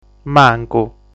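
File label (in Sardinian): "Mancu", Sardinian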